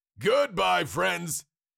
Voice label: Cartoon lion voice goodbye friends

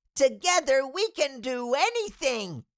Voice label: Cartoon little child voice we can do anything

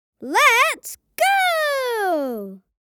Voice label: Lets go boy child kid

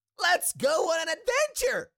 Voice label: Cartoon little monster voice

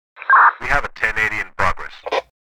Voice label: Police radio chatter 10 8 in progress

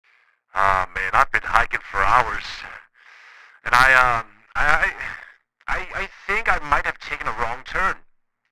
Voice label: Walkie talkie conversation short sentence

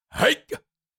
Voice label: Cartoon lion voice "jump"

